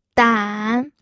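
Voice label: "dan", Mandarin Chinese, third tone; pinyin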